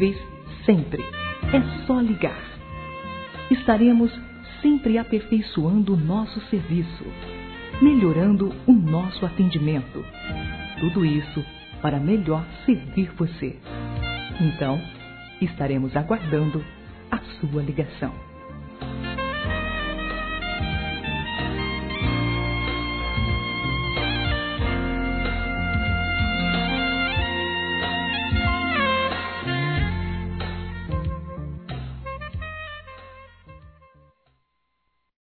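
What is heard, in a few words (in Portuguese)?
OFERECENDO MENSAGEM-Feminino; Telemensagens; Telemensagens 2017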